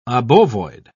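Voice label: "obovoid", British English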